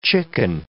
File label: "chicken", English